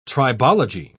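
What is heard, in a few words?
"tribology", British English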